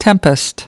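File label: "tempest", US English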